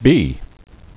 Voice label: "bee", English